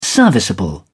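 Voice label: "serviceable", British English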